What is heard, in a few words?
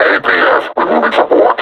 announce attack cover, combine suppressor; Half Life Alyx